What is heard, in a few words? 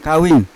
"kavin" finger, Yawa